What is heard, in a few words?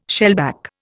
"shellback", Indian